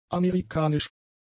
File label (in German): "amerikanisch", German; adjectives